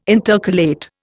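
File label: "intercalate", Indian English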